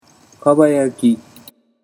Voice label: "kabayaki", Japanese

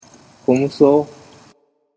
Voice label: komuso, Japanese utterance

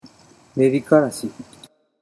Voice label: "nerikarashi", Japanese

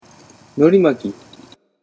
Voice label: "norimaki", Japanese

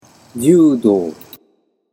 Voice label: "judo", Japanese

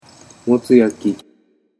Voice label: "motsuyaki", Japanese

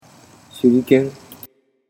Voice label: "shuriken", Japanese

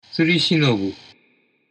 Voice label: "tsurishinobu", Japanese

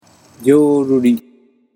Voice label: "joruri", Japanese

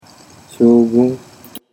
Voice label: "shogun", Japanese

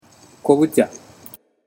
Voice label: "kobucha", Japanese

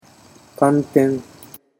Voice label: "kanten", Japanese

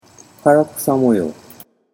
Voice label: "karakusamoyou", Japanese

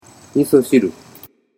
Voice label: "misoshiru", Japanese

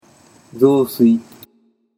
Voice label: "zosui", Japanese

